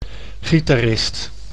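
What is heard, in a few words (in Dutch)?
"gitarist", Dutch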